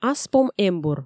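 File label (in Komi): "аспом эмбур", Komi, female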